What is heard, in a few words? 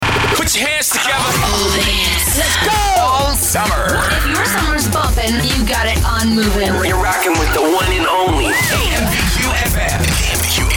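voice over hot chr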